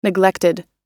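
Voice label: "neglected", American English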